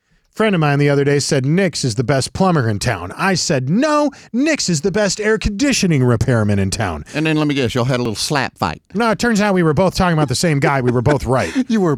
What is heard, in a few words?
RADIO AD; nicks plumbing radio ads